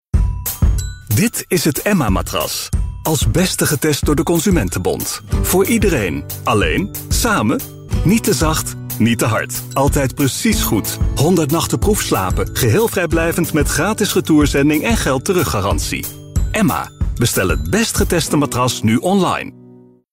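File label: Dutch, Commercial; GoLocalise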